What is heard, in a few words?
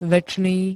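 "večný", Slovak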